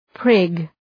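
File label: "prig", English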